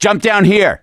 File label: misc directional, voice; gambler